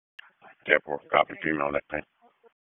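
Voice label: Police Northeast District Disp, radio; Wake; p25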